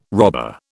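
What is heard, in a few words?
"robber", British English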